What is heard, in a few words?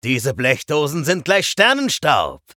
vo chatter positive; eisen; frag